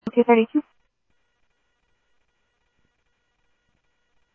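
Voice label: Sheriff West County, scanner audio trunk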